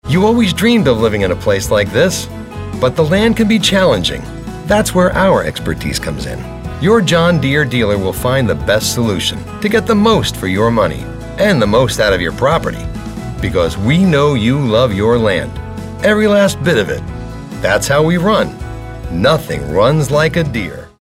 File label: upbeat